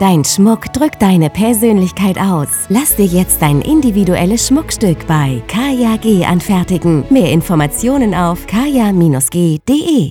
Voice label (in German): Funkspot; Kaja G. Schmuckgestaltung